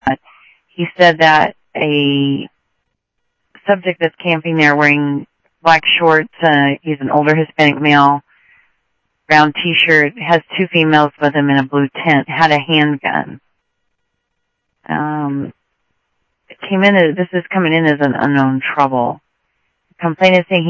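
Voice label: Sheriff Lake Patrol, scanner audio trunk; MCSO Lakes 1; ThompsonPeakScot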